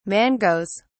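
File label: "mangoes", British English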